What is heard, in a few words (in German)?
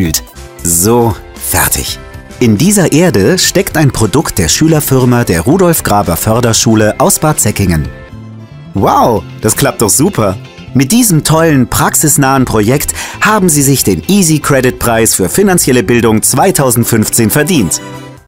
imagefilme warm freundlich strahlend sympathisch einfuehlsam; vr bank